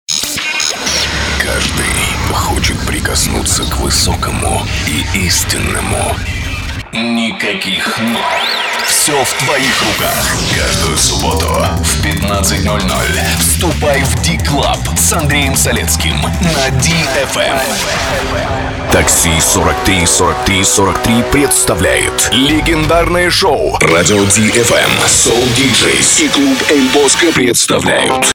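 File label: male voice, Russian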